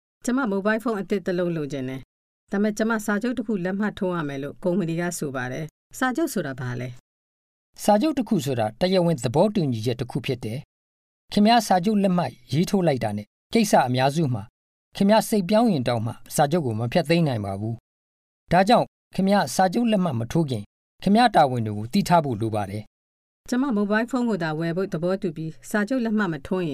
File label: contracts burmese